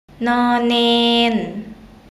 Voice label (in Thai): "ณ เณร", Thai